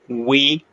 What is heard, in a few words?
short voyels arabic alphabet